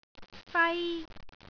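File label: "fai1", Cantonese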